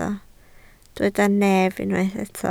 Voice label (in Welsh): "nef", Welsh